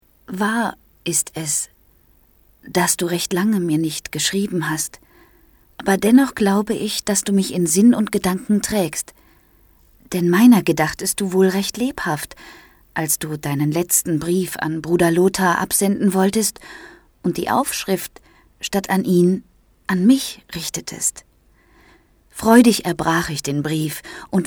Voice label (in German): Hörbuch